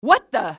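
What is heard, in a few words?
what the, enemy english female; XBOX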